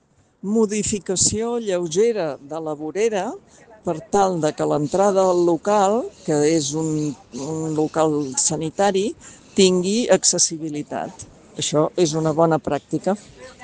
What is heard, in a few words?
Voice message